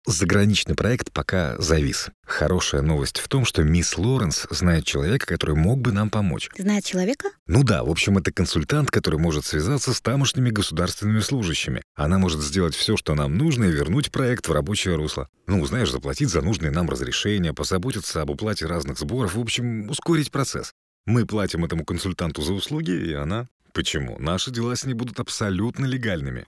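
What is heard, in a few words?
Russian dialogue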